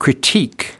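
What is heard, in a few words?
"critique", US English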